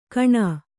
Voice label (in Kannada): "ಘೋಣೆ", Kannada